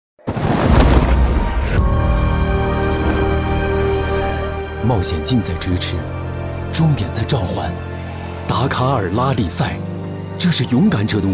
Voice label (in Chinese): 男国 汽车 非凡进取, 品质走心tvc; 奥迪; 汽车tvc广告